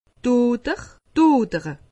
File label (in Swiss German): "oder", alsace; medecine